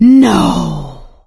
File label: reaction negative, survivor voice; Left 4 Dead 2; producer